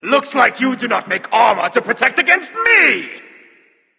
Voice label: taunt directed; smite; hebo; vulcan